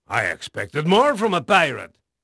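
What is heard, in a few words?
Portuguese male, voice; Pirates Of The Caribbean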